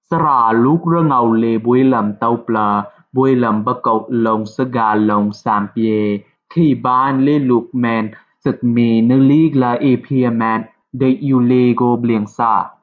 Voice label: udhr lamai